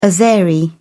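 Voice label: "azeri", British English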